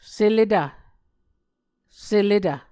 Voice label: "seletv", Muscogee